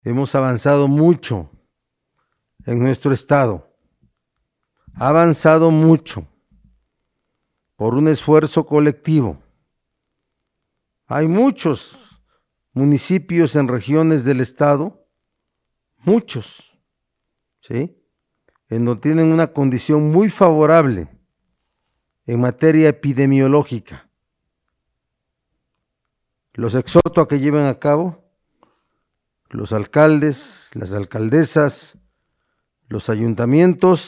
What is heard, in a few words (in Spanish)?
Grito; festejos